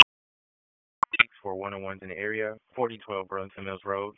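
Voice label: Dispatch 1, P25; wake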